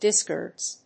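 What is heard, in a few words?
"discords", English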